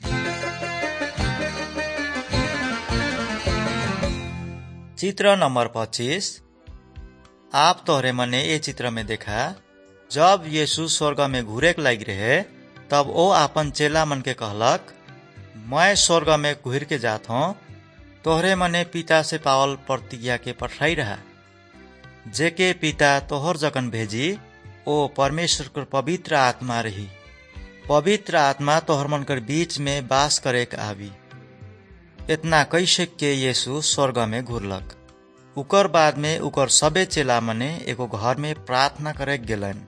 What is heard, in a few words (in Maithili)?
good news maithili, nt drama; wycliffe bible translators inc; kisan